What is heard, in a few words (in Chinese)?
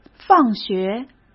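"放学", Mandarin Chinese; chinese-flashcards; hsk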